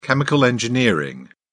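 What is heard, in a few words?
"chemical engineering", British English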